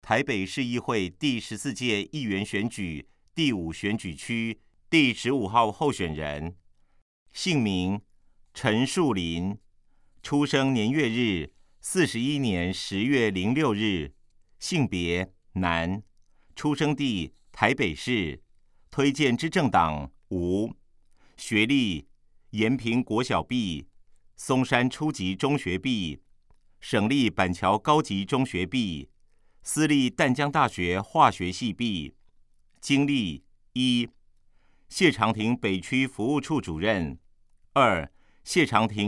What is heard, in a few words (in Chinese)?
國語; 臺北市; 有聲公報; 市議員; 臺北市議會第14屆議員第5選舉區 (中正、萬華區)候選人介紹